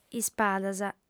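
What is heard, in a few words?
"ispadas", female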